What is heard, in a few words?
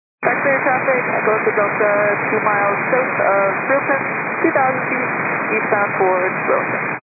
122 925MHz, scanner radio